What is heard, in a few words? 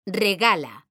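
"regala", Spanish, female; mekanta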